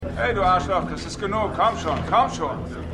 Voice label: Bauchredender Detective; Boston Strangler

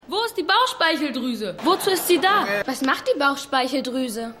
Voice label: Junge Maedchen; Keine Gnade Fuer Dad

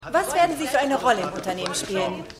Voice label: Reporterin; Succession

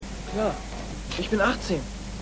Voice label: Jugendlicher

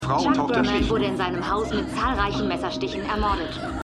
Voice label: Nachrichtensprecherin; Truth Be Told

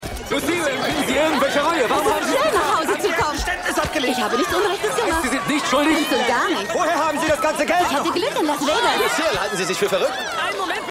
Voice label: Reporter

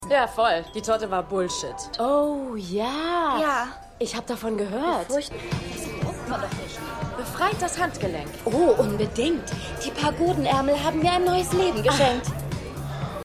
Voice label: Junge Frau, Stimmproben

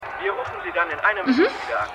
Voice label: Mann Telefon; Winning Time

